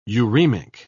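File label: "uremic", British English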